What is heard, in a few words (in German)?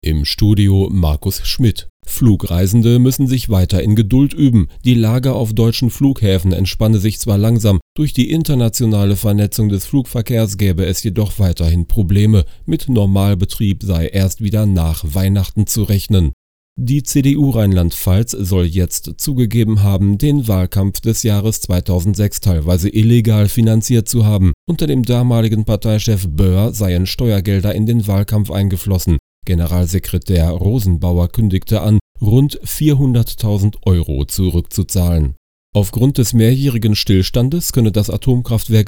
Nachrichten